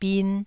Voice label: "bin1", taiwanese

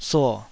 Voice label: "suo3", Taiwanese

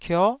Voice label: "kio9", taiwanese syllables

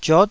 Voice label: "ziot9", taiwanese

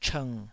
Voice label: "chng3", taiwanese

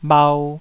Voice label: "bhau2", taiwanese

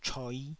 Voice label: "choi5", taiwanese syllable

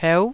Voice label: "peu9", taiwanese

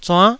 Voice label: "zuann9", taiwanese

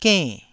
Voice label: "genn4", Taiwanese syllable

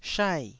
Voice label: "shai4", taiwanese

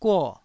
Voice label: "guo4", taiwanese

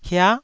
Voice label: "hia9", taiwanese

